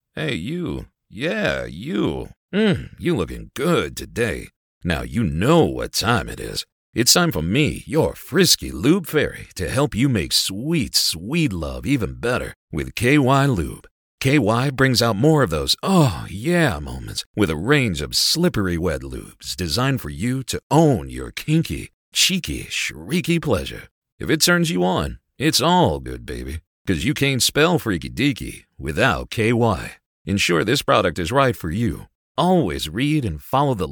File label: Commercial; Lube Campany